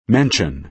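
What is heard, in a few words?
"mention", American English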